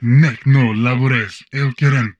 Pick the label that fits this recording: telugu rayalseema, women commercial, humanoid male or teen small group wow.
humanoid male